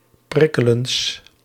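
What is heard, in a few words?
"prikkelends", Dutch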